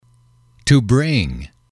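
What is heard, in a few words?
"to bring", English, male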